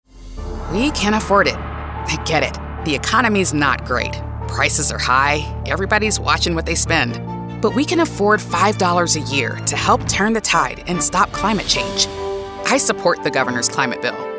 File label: Political Real Person Conversational Concerned Upbeat Affordability Economy; Proposition 112